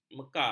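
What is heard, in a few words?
"mekar", Malay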